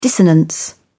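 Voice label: "dissonance", British English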